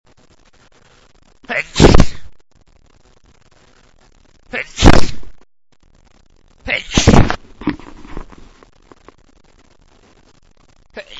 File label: Violent, male dom